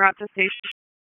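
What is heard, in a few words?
Raleigh Police Special Operations Tactical, p25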